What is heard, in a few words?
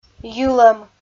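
"йылӧм" Komi, female; audio dict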